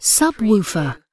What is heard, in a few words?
UK female subwoofer; surround; lynne publishing